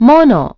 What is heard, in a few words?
mono, announce voice; Nintendo; July 2020 Gigaleak